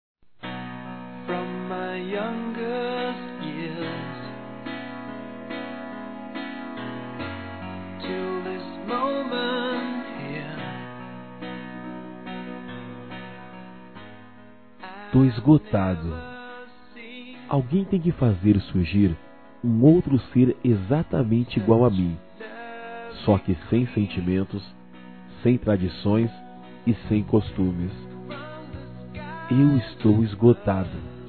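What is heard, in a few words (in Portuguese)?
RECONCILIAÇÃO AMANTE FILHOS Masculino; Telemensagens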